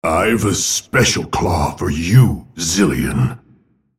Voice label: attack, en US; Volibear; Character Voices - English

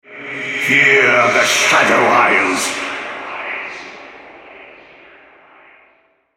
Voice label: Altar Capture, Male

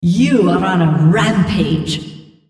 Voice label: female On Killing Spree

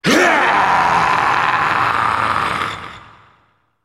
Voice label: ultimate effort; Malzahar

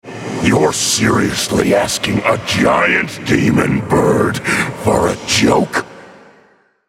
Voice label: joke, en US; Swain; Raven

